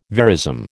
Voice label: "verism", American

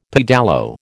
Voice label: "pedalo", American

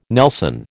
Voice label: "nelson", American

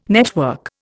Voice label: "network", Indian

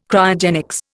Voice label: "Cryogenics", Indian

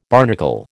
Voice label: "barnacle", American

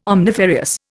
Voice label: "omnifarious", Indian

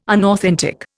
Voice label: "unauthentic", Indian

